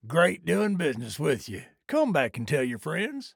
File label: trader male like sale accepted